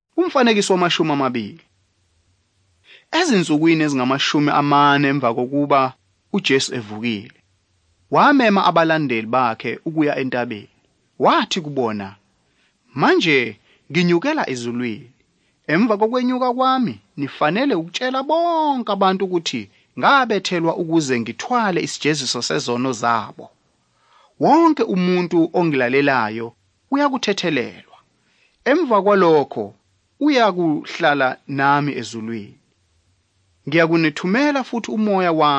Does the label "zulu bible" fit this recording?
Yes